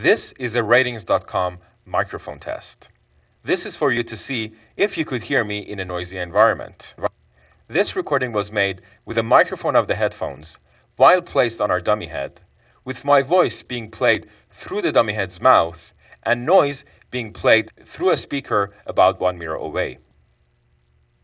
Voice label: internal mic